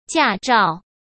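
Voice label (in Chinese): "驾照", Mandarin Chinese; chinese flashcards; hsk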